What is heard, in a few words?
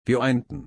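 "einen", German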